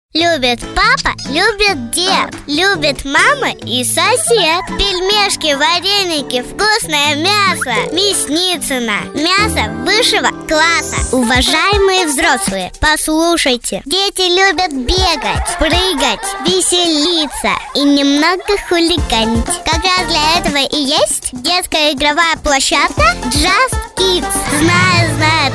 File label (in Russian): Detskiy golos